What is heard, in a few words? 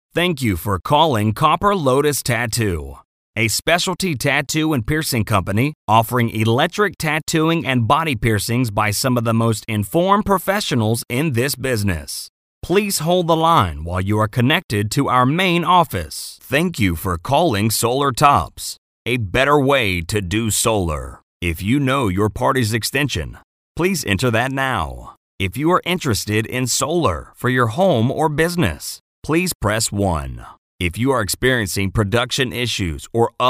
PHONE GREETING